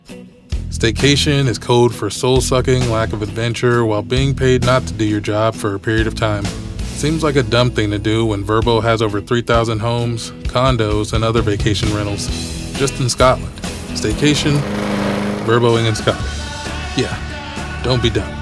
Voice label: Radio Commercials, Friendly, conversational, approachable Male Voice; Vrbo Spot